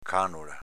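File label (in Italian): "cànola" Veneto dialect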